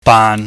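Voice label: "baan", Cantonese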